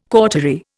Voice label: "cautery", Indian